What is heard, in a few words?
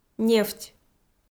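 "нефть", Komi, female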